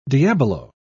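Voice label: "diabolo", British English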